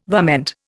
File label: "varmint", Indian